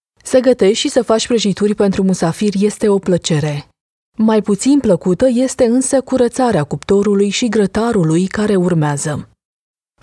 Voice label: romanian others; romanian voice over talent